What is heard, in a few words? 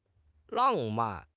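"lang4 man4", Chinese; HSK; HSK4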